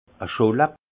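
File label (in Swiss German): "scholla", alsace Haut-Rhin; vin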